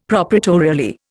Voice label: "proprietorially", Indian; Dictionary